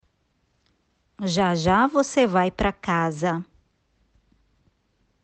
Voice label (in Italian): Portoghese